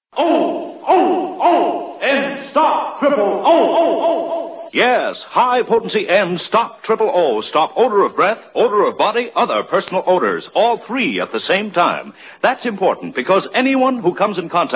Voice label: Chlorophyl, Old Radio Ads